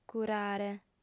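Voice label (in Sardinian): "curare", female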